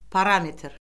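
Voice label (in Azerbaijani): "parametr", Azerbaijani; Orfoqrafiya Lüğəti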